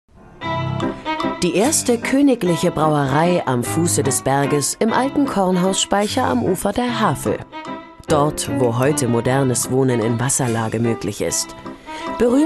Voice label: Kommentar Doku; RBB; Der Brauhausberg; LOFT VOICES

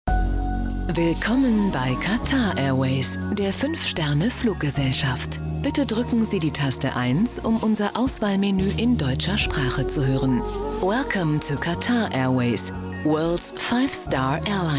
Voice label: medley telefonsysteme dt engl frz span

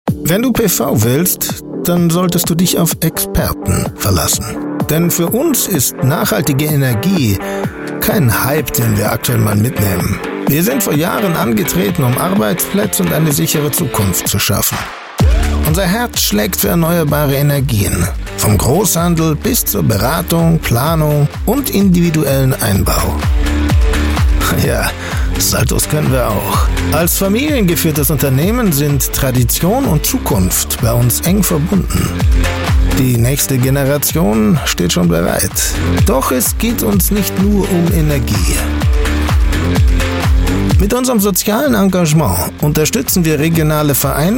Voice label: IMAGEFILM Energy; Rehl Energy

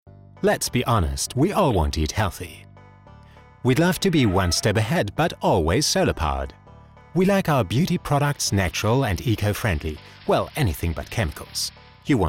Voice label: Imagefilm animiert englisch

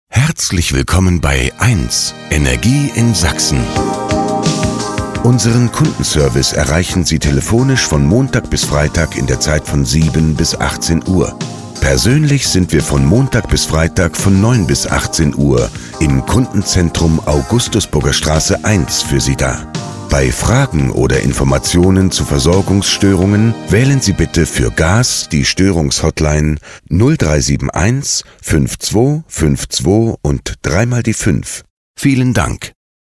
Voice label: telefonansage erdgas; erdgas suedsachsen; LOFT VOICES

